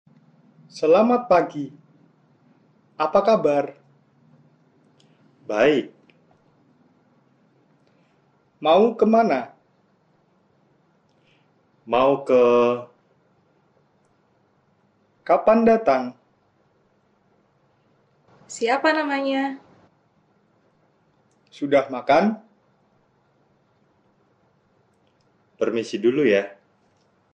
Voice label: Dialog